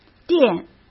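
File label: "电" Mandarin Chinese; chinese flashcards; hsk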